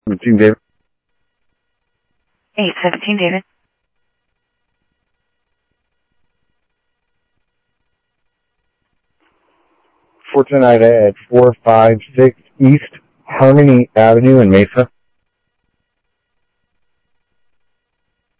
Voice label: Central Sheriff Central, scanner audio